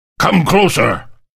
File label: shotgun closer; hl2; ravenholm; Source engine